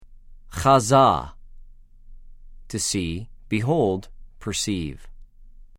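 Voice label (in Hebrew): "חָזָה", Hebrew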